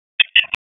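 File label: Raleigh Police Northeast District Tactical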